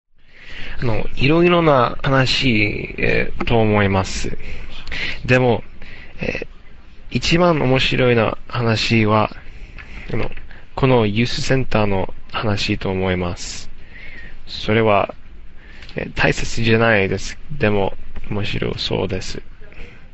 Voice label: Japanese Conversation